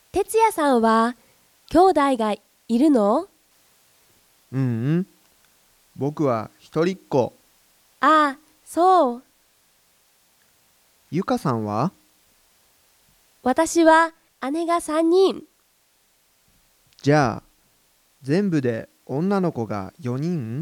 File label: Conversation